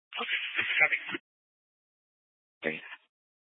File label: Fire Dispatch, scanner